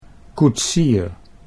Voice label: "koetsier", Dutch